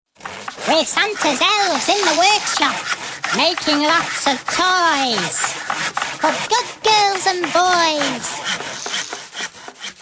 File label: Santa's Elves, Cute elves working in Santa's workshop making toys.; Christmas (Sound Effects:Seasonal)